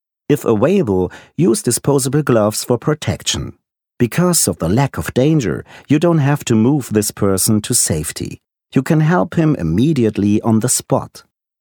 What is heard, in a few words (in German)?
englisch erklaervideo first aid